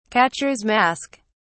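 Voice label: "catcher's mask", British English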